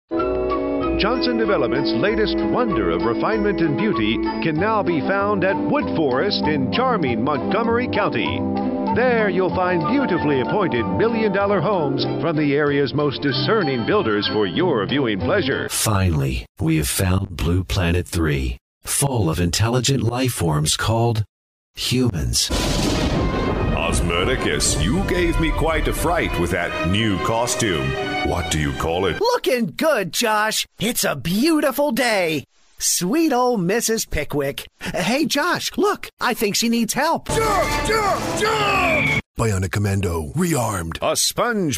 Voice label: Characters Male, commercial voiceover